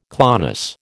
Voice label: "clonus", American